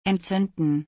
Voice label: Fire in German

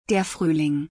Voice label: Spring in German

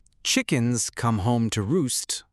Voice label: chickens come home to roost Pronunciation

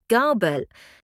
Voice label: fork in german

